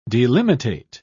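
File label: "delimitate", British English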